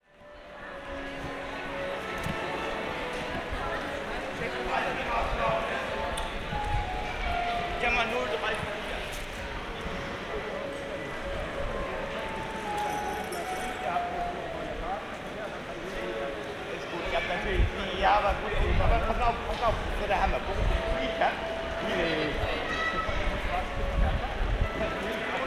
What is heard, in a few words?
drunk fans talk with me train station hamburg